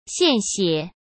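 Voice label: "献血", Mandarin Chinese; hsk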